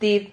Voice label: "tib", Silabas

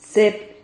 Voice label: "cep", Silabas

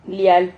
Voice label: "lial", Silabas

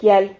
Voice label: "quial", Silabas

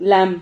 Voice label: "lam", Silabas

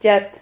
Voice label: "yat", Silabas

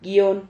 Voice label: "guion", Silabas

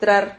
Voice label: "trar", Silabas

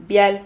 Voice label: "vial", Silabas

